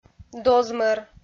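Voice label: "дозмӧр", Komi, female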